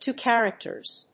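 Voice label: "Two characters", English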